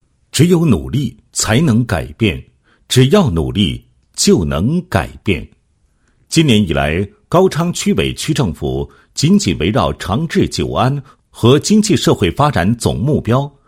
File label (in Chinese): 男 工作汇报; 高昌区